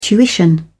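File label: "tuition", British English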